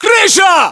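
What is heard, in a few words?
location rooftop, battlechatter, Russian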